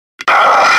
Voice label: hurt light; cloaker